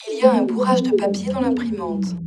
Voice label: dispersion French